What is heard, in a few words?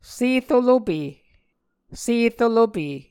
"serolope", Muscogee